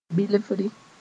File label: "bilfri", Mooré